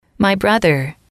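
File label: "brother", English, female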